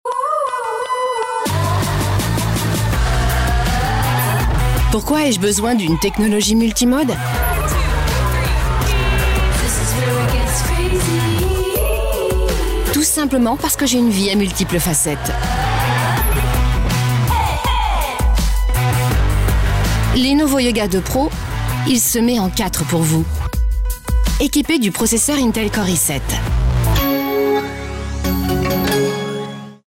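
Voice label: French advert; sprecher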